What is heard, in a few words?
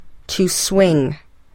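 "to swing", English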